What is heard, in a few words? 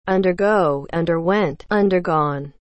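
"undergo", English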